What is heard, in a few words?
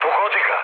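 Serbian attack position mood low, speech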